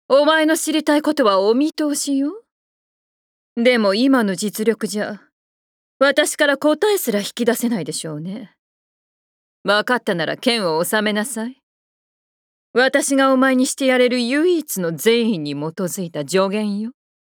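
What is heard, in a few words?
voice japanese; Sibylle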